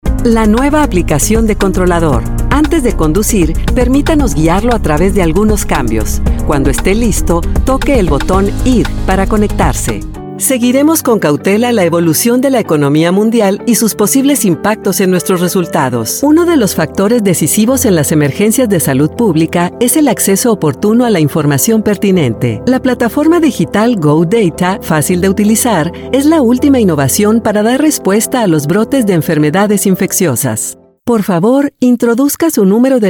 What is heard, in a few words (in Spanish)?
Spanish Female